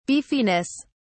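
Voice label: "beefiness", English, British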